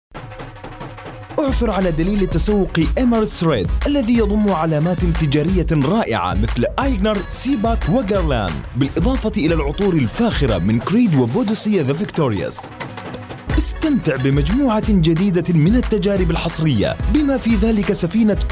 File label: White Arabic; Fly Emirates; ICE